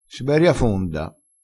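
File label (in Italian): siberia fonda, vocabolario dialettale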